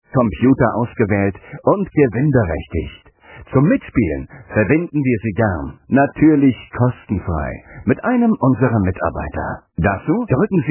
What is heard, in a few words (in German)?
bescheuerter anrufbeantworterspruch